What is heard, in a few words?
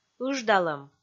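"ыждалӧм", Komi, female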